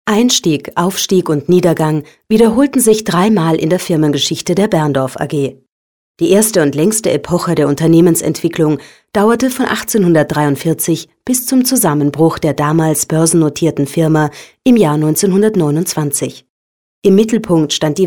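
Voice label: german female voice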